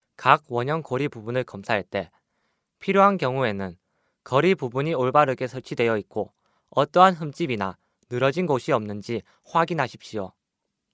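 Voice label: Korean, male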